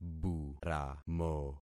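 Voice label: "buramo", concatenated syllables; Affixing experiment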